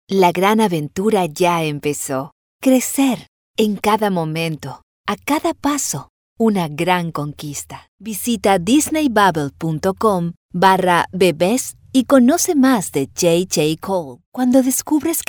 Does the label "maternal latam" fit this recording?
Yes